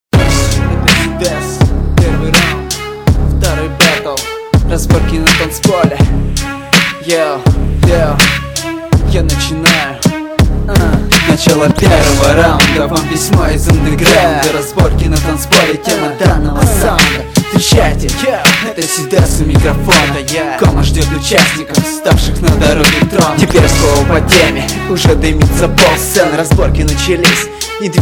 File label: rap